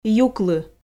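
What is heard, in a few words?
"юклы", Komi, female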